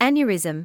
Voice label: "aneurysm", English